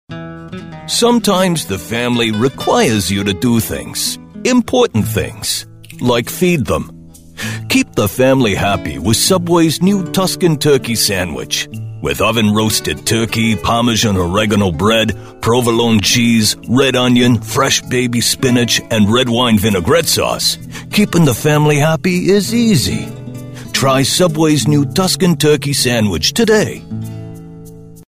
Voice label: AMERICAN ITALIAN mobster accent voiceover

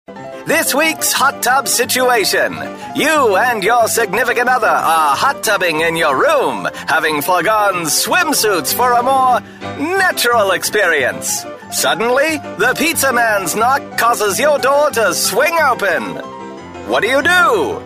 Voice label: roaring 20s voiceover

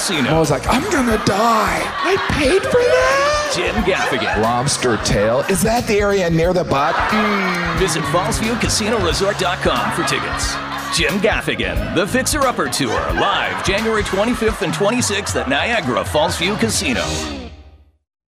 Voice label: COMEDY; voice artist; Jim Gaffigan

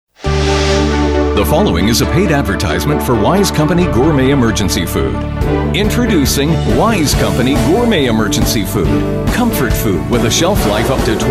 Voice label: Mid Atlantic English DRTV Infomercial

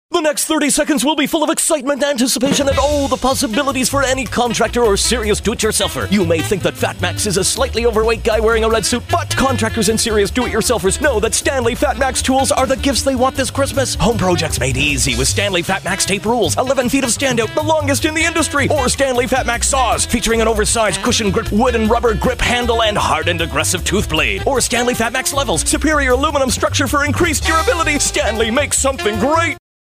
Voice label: SALESMAN OVER THE TOP voiceover

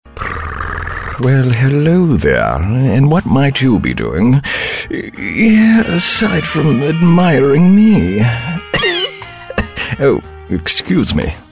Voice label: COOL CAT voiceover

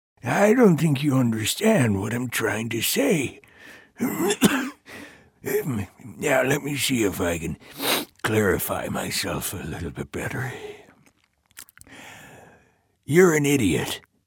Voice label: old man voiceover

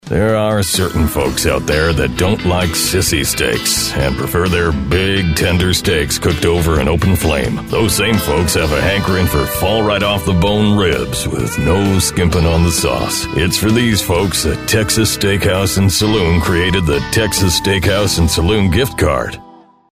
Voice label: TEXAN accent voiceover gruff

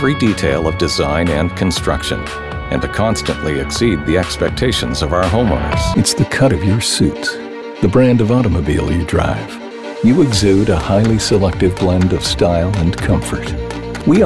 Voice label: Mid-Atlantic English Corporate

